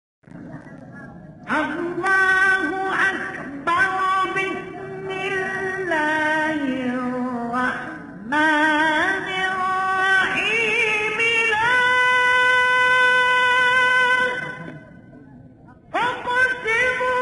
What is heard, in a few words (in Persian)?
تلاوت سوره مبارکه بلد; استاد مصطفی اسماعیل